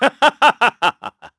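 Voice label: Vox Happy, Korean; KaselB; kingsraid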